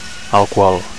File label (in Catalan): "alcohol", Catalan